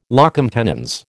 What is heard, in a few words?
"locum tenens", American